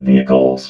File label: "vehicles", robot